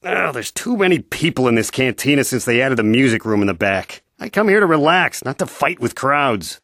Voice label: male